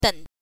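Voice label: "den", Mandarin Chinese pinyin, third tone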